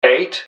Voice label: "eight", english man; subway announcers; MetrostroiAddon